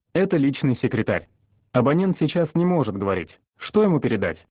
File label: friendly male voice; mts sekretar